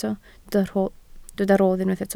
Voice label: "rodd", Welsh

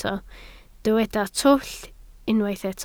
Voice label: "twll", Welsh

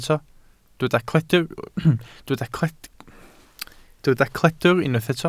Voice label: "cledr", Welsh

